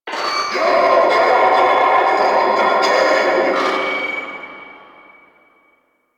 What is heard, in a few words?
Vocal Quest Loudspeaker, Town Escape; Quests; Duviri; Ambience